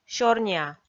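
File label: "сёрниа" Komi, female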